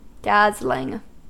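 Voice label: "dazzling", US English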